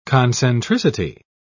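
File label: "concentricity", British English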